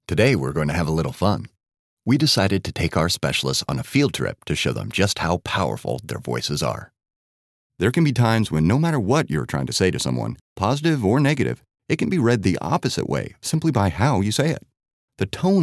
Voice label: Internal training

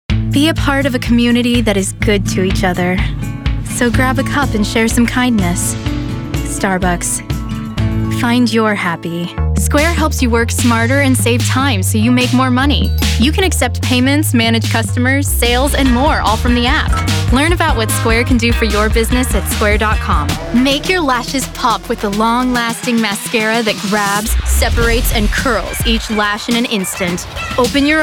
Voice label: Commercials

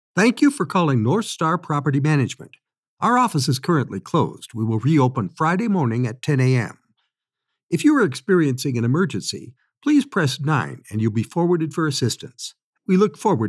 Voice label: Demo Reel TELEPHONE MESSAGES

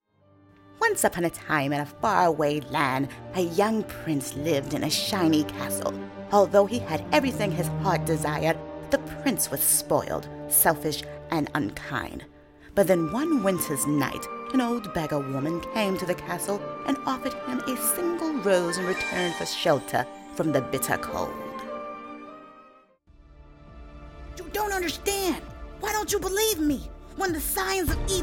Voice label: VO-Character Voice

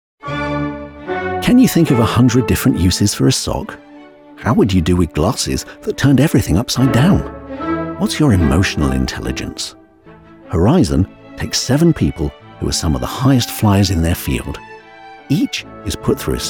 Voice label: Narrative

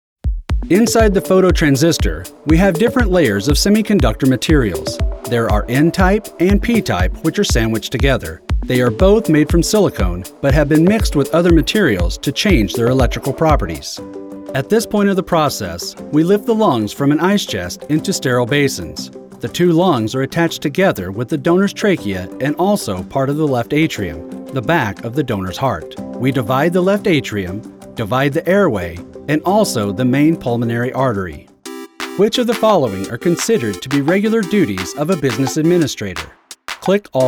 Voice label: eLearning Narration

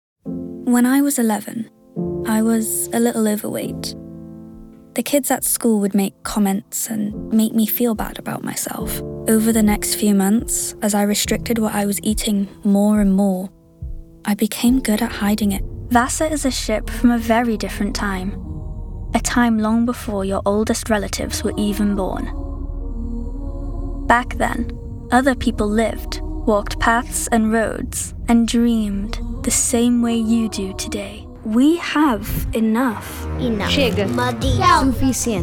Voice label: Corporate

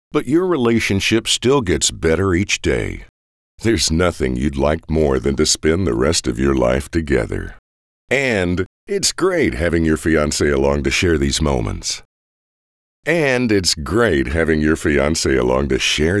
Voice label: Bullet Liner VO

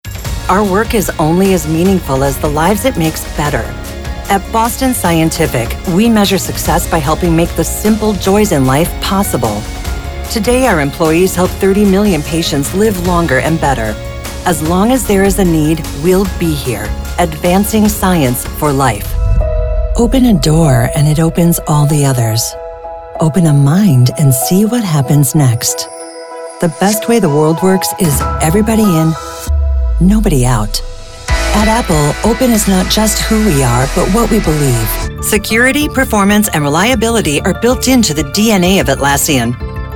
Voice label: corporate narration

